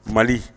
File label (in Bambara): "mali", Bambara; animaux; Mots Bambara